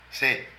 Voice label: Grasa, Zapoteco de Petapa; Vocabulary

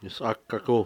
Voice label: "mujer vieja", Cucapá

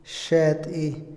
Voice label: "pellizcar", Otomí, hombre; Percepción; INALI

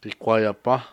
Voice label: "toro", Cucapá

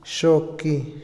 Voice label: abrir, Otomí, hombre; INALI

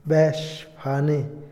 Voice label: "tambor", Otomí, hombre; Habla; INALI

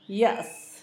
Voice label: catrina, Zapoteco, Asunción Ocotlan; Humano; INALI

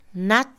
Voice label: sordo, Mixe, Mujer; Cuerpo; INALI

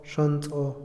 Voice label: "feo", Otomí, hombre; INALI

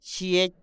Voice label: "huevo", Kumiai; INALI

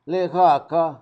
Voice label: "tu mismo", Zapoteco, Magdalena Ocotlan; Pronombres; INALI; Haspelmath

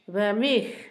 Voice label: mixteco, Zapoteco, Asunción Ocotlan; Topónimos; INALI

